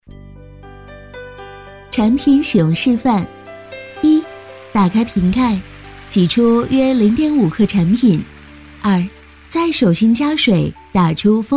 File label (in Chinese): 女 课件 产品说明（亲切自然）